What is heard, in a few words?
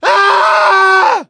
incapacitated injury, voice; gambler